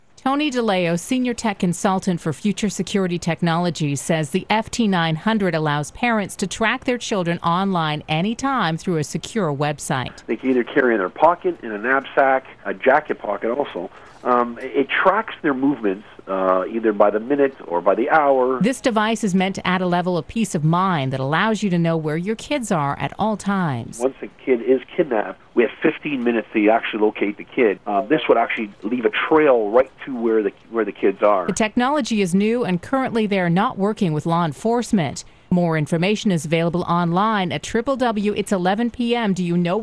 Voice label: radio